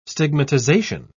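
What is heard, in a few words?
"stigmatization", British English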